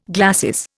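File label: "gladden", Indian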